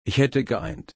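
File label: "einen", German, slow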